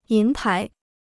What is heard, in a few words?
"银牌", Chinese